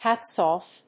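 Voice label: "hats off", English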